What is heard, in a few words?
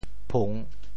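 "phung3", Teochew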